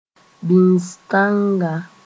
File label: "bĩis tãnga", Mooré